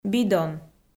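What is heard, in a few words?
"бидон", Komi-Zyrian, female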